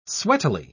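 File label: "sweatily", British English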